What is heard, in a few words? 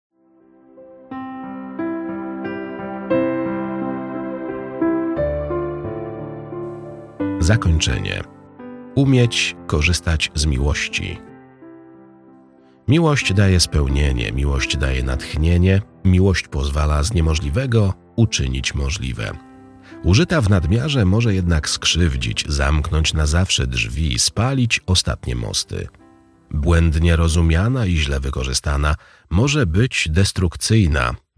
zakonczenie audiobook; "Ten zapach miał kolor niebieski"; czyta Olgierd Łukaszewicz